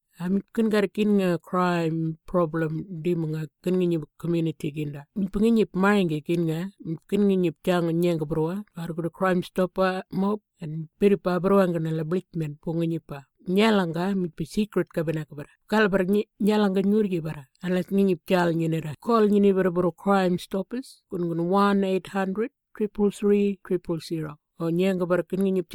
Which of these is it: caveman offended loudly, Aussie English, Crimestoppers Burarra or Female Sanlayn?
Crimestoppers Burarra